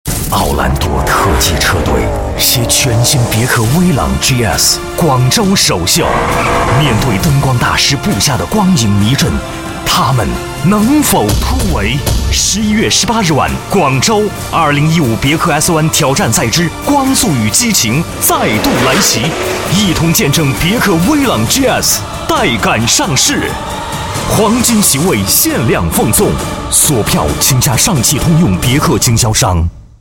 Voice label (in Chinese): 男 汽车广告, 国语男声配音